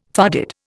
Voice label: "farded", Indian

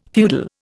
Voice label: "feudal", Indian

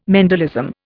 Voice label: "Mendelism", Indian

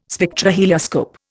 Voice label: "Spectrohelioscope", Indian

